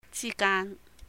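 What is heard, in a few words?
"jì gāan", between, Cantonese; 之間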